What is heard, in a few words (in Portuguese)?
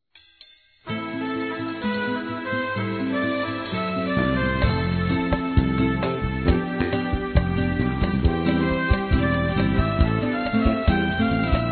ROMANT. MADURA F; Aniversários; ANIVERSARIO romantico; fem